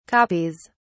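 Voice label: "copies", British English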